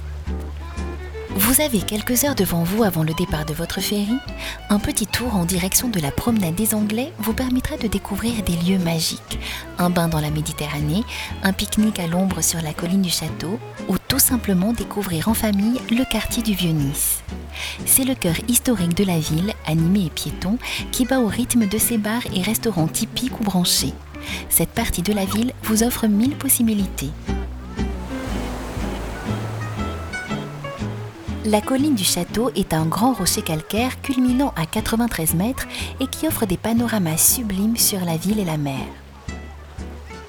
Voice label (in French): Ballade sonore, Niçois